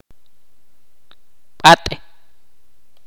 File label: "granizo de hielo" in Ch'orti'